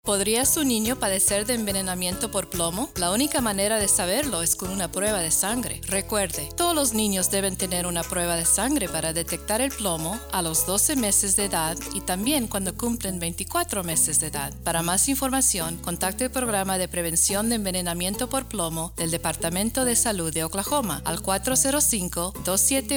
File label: Get the Lead Out PSA Spanish